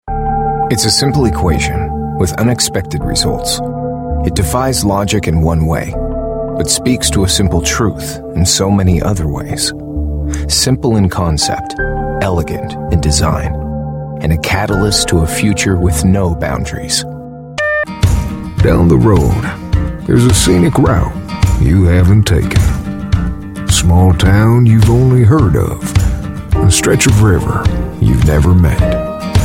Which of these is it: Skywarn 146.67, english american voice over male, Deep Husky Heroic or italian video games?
english american voice over male